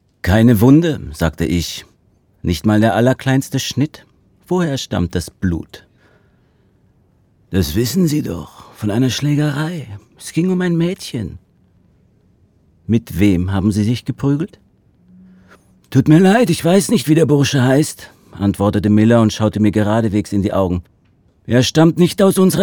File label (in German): Hoerbuch